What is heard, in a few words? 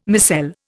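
"micelle", English, Indian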